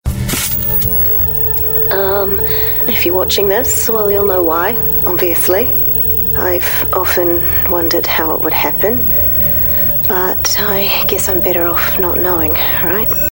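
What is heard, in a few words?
NZ English Videolog, Female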